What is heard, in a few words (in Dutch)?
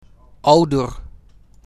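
"Ouder", Dutch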